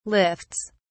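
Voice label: "lifts", British English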